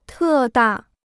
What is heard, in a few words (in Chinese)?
"特大", Chinese